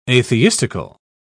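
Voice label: "atheistical", English, uk British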